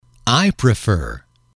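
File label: i prefer, English, male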